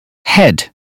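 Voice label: "head", British phonetics; 英语音标发音（剑桥版）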